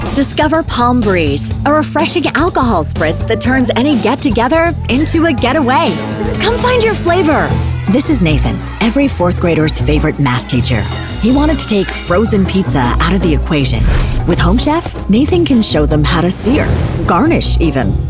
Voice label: Commercial